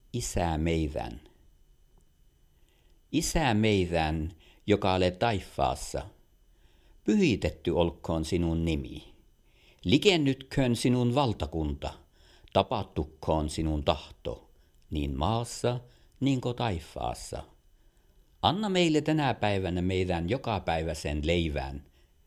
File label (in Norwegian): kvensk fader vår